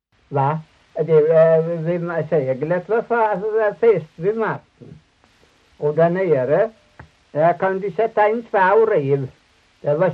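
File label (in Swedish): Torhamn Blekinge, Svenska sagor dialekter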